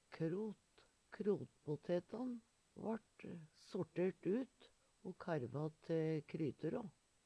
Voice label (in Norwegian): "krot", Numedalsmål; Adjektiv